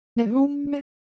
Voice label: Japanese, Ideo Phones; Shape